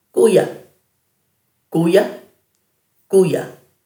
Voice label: "guya", Dhurga